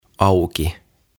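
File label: "Auki", Finnish; Open; OTAVA KONSERNI; tuhatsanaa; aantamisohjeet suomeksi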